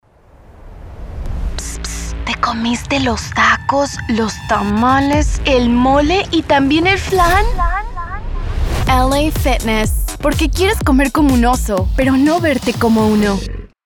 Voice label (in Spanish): SPA LATAM Fitness Energetico Audaz